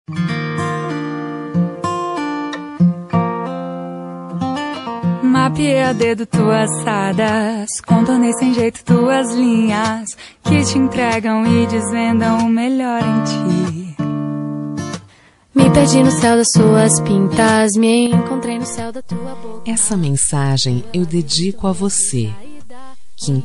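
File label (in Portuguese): romântica amante female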